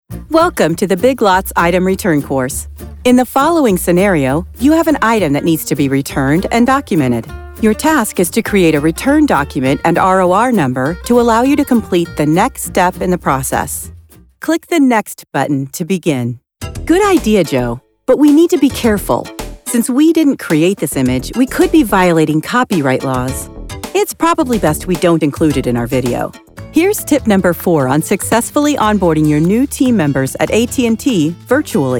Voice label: eLearning